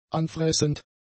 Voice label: "anfressend", German; adjectives